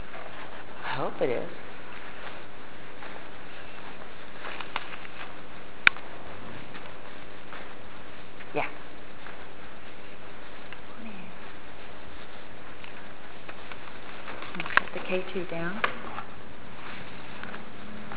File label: temple female voice come in